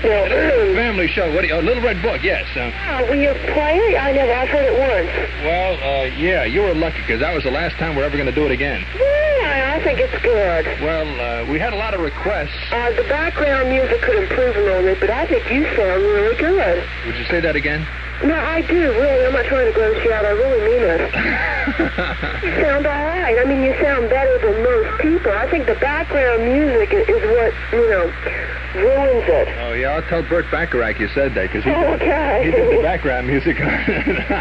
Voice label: obscene phone call bit